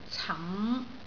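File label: "cam5", Cantonese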